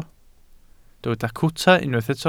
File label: "cwta", Welsh